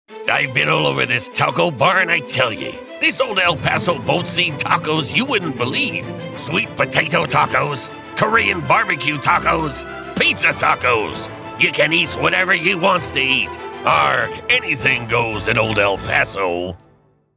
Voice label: A Deep, Mature, and Knowledgable voice. Delivery styles include authoritative, and confident, or guy next door; Old El Paso